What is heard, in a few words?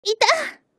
battle f